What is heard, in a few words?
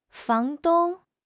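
"fang2 dong1", Mandarin Chinese; HSK; HSK4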